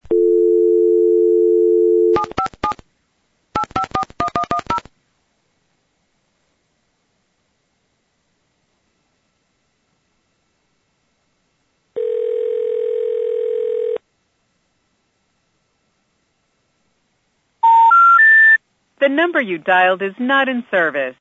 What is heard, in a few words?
Verizon generic centralized intercept; centralized intercept local telephone company recordings